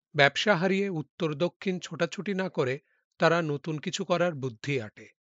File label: Male